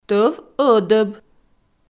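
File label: "тӧв ӧдӧб", Komi, female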